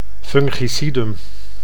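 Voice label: "fungicidum", Dutch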